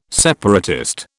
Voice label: "separatist", British

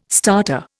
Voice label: "starter", Indian

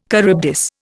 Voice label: "Charybdis", Indian

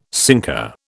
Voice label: "sinker", British

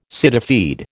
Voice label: "cityfied", American

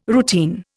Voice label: "routine", Indian

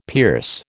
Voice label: "pierce", American

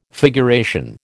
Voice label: "figuration", American

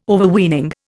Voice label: "Overweening", Indian

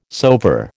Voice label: "soever", American

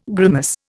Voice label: "grimace", Indian

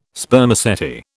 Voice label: "Spermaceti", British

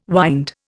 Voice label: "wynd", Indian

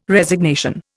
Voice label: "Resignation", Indian

